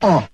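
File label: "uh", male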